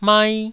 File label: "mai1", Taiwanese syllable